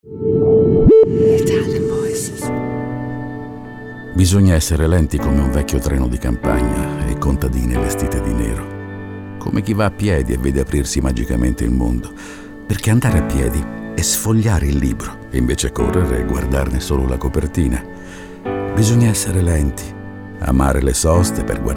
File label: ITALIAN VOICES; Naked Reel